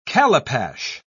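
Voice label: "calipash", British English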